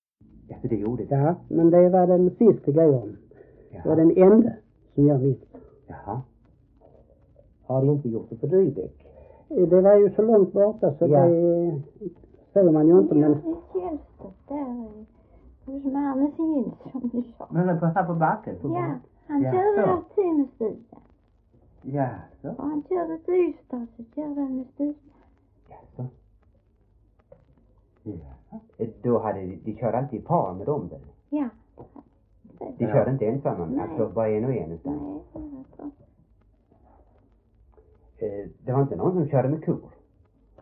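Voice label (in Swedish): Äspö Skåne, Svenska sagor dialekter; Folkminnen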